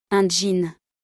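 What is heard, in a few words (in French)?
"Un jean", French; vocabulaire; le lexique de l'habillement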